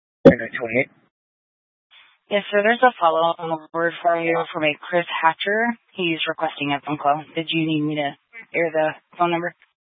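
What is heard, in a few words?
Incident Tactical, scanner audio recording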